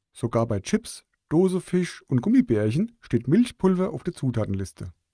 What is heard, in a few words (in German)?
Hessisch Dataset Sample